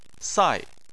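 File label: "sai", Mandarin Chinese, fourth tone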